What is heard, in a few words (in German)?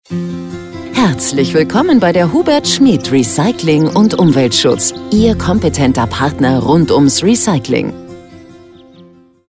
Recycling Ansage vor Melden